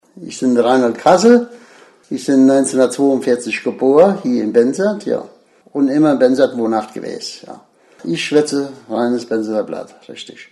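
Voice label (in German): vorstellung, Hunsrück; Benzweiler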